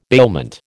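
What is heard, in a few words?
"bailment", American English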